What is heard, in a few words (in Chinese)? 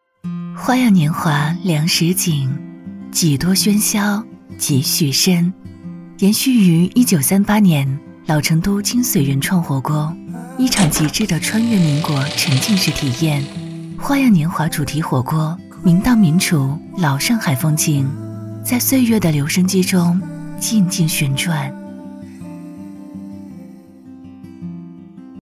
短视频广告 火锅; 成都火锅